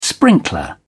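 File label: "sprinkler", English, British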